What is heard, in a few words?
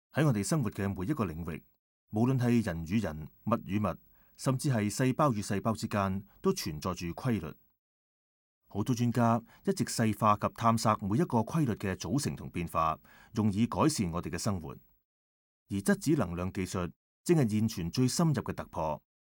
CANTONESE